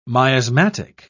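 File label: "miasmatic", British English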